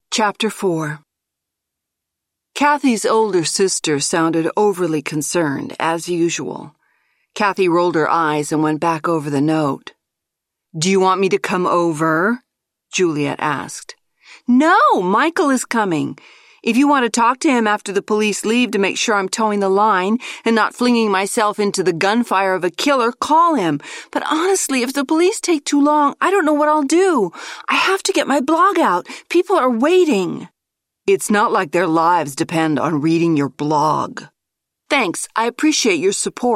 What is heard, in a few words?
Audiobook sample; truth stained lies